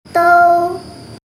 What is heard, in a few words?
"dou", Mandarin